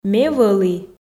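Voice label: Komi sentences, female; ме вӧлі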